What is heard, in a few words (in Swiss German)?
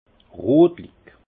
"rotlich", alsace; vin; fauve